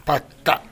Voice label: "patta", minamiyamagata

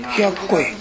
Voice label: "hyakkoi", minamiyamagata

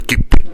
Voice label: "geppe", minamiyamagata

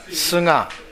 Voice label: "suga", minamiyamagata

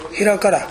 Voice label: "herakara", minamiyamagata

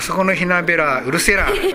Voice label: "henabera", minamiyamagata

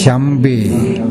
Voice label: "yanbae", minamiyamagata

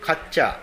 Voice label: "kaccha", minamiyamagata

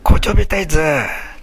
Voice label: "kochobitae", minamiyamagata

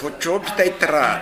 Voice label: "kochobitae", minamiyamagata

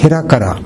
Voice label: "herakara", minamiyamagata

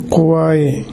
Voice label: "kowae", minamiyamagata; 疲れる。体調不良。疲れて苦しい。

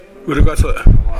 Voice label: "urugasu", minamiyamagata

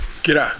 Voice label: "gera", minamiyamagata

